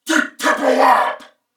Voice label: Reload Male, en; Corpus Venus; Warframe; CorpusVenusMaleB

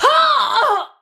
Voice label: Pain Ranged, Battle Barks; Tau; Tau Dax

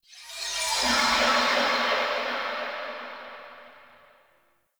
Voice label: Unnatural Speech; Warframe; Shadowgrapher

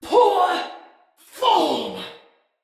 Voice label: Attack, Male, en; Battle; Barks; Dialog; Sinecure Banneret

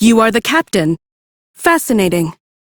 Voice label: Crew Hired, female; Warframe; Railjack; Cephalon Suda

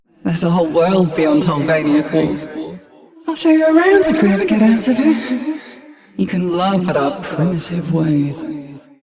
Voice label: Ambient Barks, en

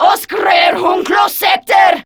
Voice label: Patrol, Grineer Female; Warframe; Battle Barks

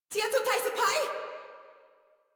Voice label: Surprised Female; Warframe; Modular Corpus Female

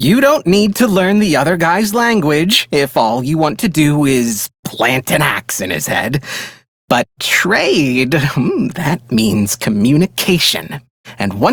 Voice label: Idle, Male; Dialog; Warframe; Railjack Crew; Perrin Sequence

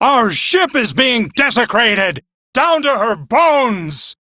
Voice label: Crew Ship Low, Male, en; Warframe; Railjack Crew; Arbiters Hexis

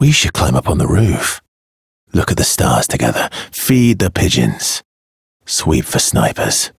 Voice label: Ambient Barks, en; Warframe; Mall Romance; Arthur

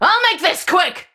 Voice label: Player Spotted Ranged, Battle Barks; Tau; Tau Dax

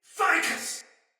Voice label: Pain, Soldier Male; Sinecure Soldier Male A

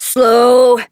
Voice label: Horse Stop Adult; Duviri

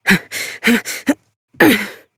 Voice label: Horse Mount Adult, Dialog; Duviri

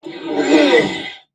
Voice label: Struggle Crewmen; Warframe; Corpus Crewmen; Crewman E; Dialog Barks Battle

